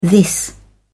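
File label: "this", British English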